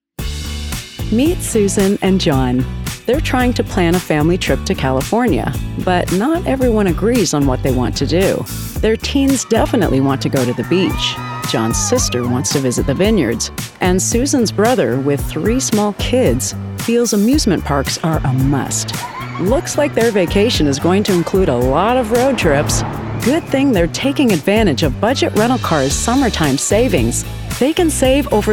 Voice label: Explainer English

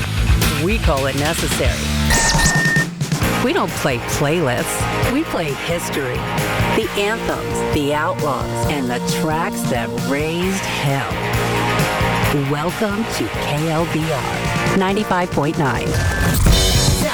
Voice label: Classic Rock Imaging

